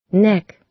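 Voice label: "neck", American English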